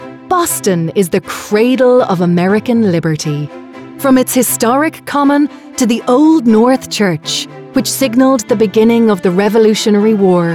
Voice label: english irish narration